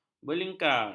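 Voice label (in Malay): "berlingkar", Malay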